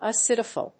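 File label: "acidophile", English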